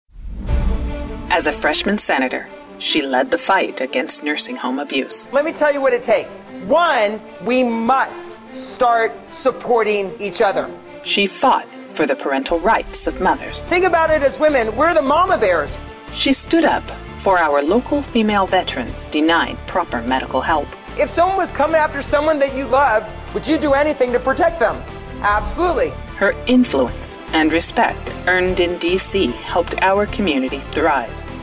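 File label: commercial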